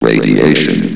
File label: "radiation", vox